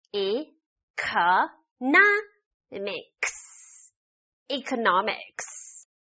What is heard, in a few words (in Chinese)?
拆音節economics